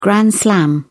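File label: "grand slam", English, British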